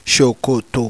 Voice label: "sokoto", Yoruba; CONSONANTS; sibi-sokoto-ise